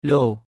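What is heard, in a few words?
"ឡូ", Khmer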